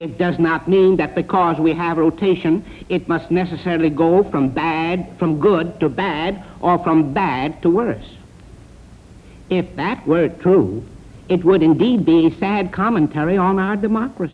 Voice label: Speech New York, american english dialect recordings; Fiorello H La Guardia